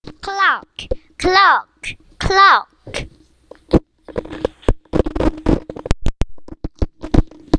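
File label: "clock", spoken word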